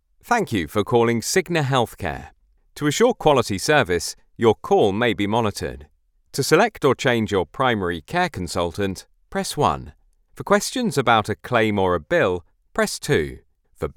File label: ON-HOLD